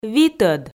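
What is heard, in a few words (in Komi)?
"витӧд", Komi, female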